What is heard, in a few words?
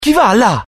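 curious, Italian; mohaa; dialogue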